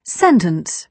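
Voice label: "sentence", British English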